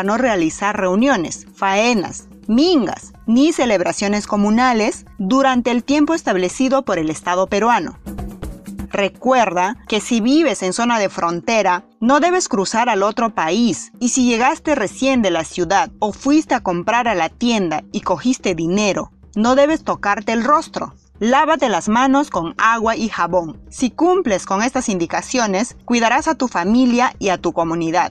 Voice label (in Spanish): castellano pista amazonica como luchamos contra el coronavirus, spot de radio; que es el coronavirus